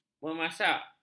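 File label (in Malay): "memasak", Malay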